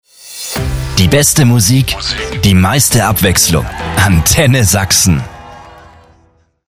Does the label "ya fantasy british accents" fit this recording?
No